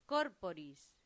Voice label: "corporis", latin; santillana

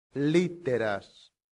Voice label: "litteras", latin; santillana

